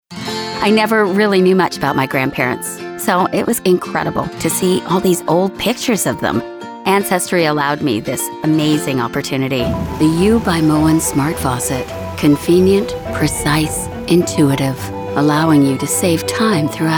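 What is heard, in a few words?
comercial, American English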